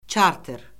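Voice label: "çarter", Azerbaijani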